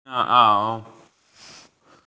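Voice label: "cotton-padded jacket", Mandarin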